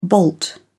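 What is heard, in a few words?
"bolt", British English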